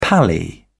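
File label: "pally", British English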